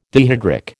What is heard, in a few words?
"dihydric", American